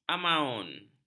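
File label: "amaun", Malay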